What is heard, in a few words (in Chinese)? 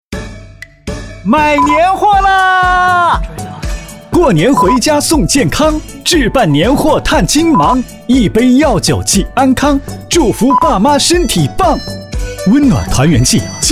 男声快板广告